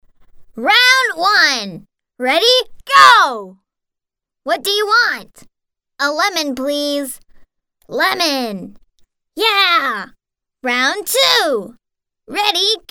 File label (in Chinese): 美式英语, 外语; 巧虎